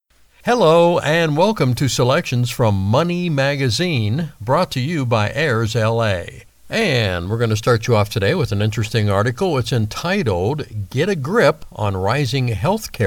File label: Podcast Sample